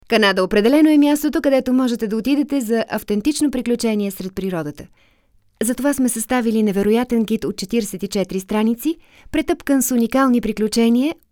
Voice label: bulgarian f character; voice demos